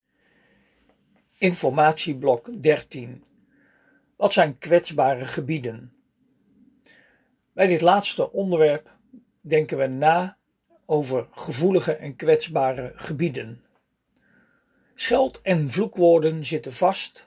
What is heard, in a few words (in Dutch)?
spraak instructie